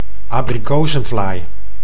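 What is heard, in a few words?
"abrikozenvlaai", Dutch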